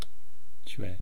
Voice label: "chwe", East Cree syllabics

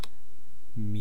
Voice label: "mii", East Cree syllabics

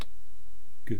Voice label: "ku", East Cree syllabics

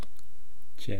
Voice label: "che", East Cree syllabics

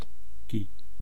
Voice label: "kii", east cree syllabics

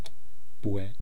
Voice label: "pwe", East Cree syllabics

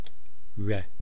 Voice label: "re", east cree syllabics

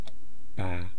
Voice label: "paa", East Cree syllabics

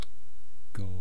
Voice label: "kwaa", East Cree syllabics

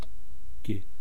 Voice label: "ki", East Cree syllabics

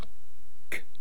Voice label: "k", East Cree syllabics

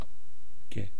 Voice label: "ke", East Cree syllabics

